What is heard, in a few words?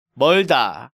"Meolda", Korean